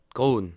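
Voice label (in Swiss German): "kron", Bas Rhin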